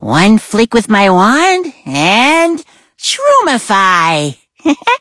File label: loading en